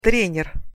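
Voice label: "trener", Russian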